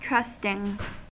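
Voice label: "trusting", American English; pronunciations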